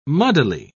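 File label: "muddily", British English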